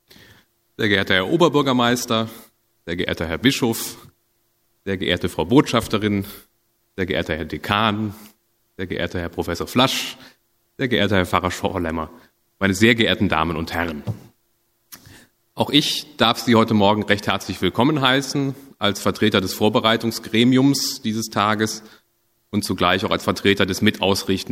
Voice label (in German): anmoderation sprecher